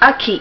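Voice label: "aki", Japanese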